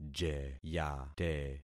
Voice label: "jeyate", concat syllables; Affixing experiment